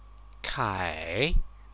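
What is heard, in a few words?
"kai3", Mandarin Chinese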